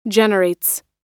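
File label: "generates", American English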